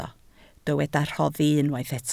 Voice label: "rhoddi", Welsh